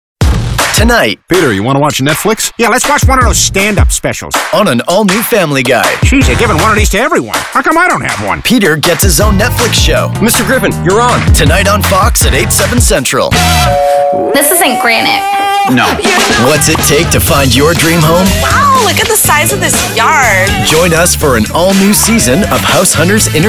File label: promo male